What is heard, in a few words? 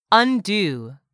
"undo", English word; 3000 words